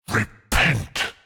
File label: Mid Fight Taunts; Voll; NPC; Voll Combat